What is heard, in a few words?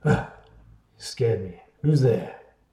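wake, Furby; Interactive Jack o lantern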